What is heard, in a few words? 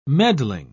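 "meddling", English, British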